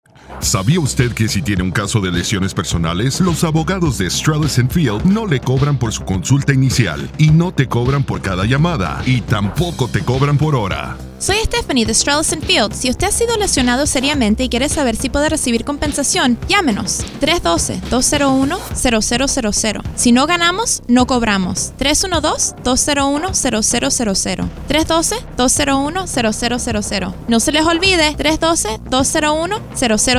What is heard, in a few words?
spanish ad no charge initial consultations; strellis field; univision